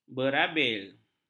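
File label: "berbabil", Malay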